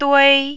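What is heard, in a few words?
"dui", first tone, Mandarin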